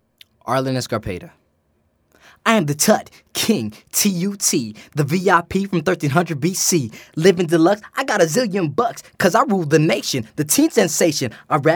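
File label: Male Animation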